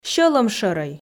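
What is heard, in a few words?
"сьӧлӧмшӧрӧй", Komi, female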